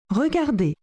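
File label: "regarder", French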